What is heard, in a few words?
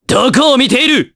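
Vox Skill Japanese; kingsraid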